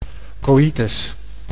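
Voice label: "coitus", Dutch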